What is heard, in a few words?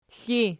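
"kwi" ergative, Archi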